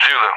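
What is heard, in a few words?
"Zulu", English; Alphabet; DCS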